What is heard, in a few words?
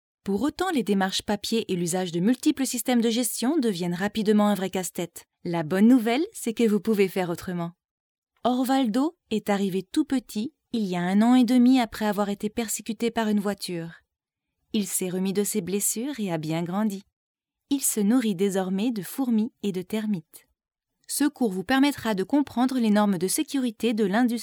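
French, France, female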